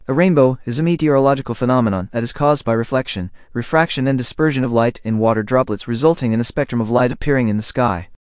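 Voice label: speaker, American English; piper-voices; en US; libritts r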